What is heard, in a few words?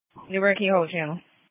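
Police Patrol Dispatch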